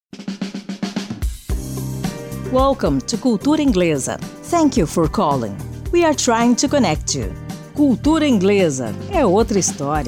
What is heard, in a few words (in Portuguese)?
gravação de espera telefônica voz feminina; cultura inglesa